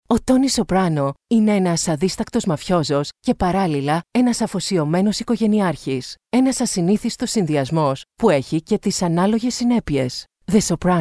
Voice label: greek tv trailer, female